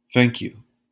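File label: "thank you", English pronunciation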